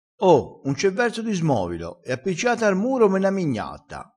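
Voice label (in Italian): "smove", vocabolario dialettale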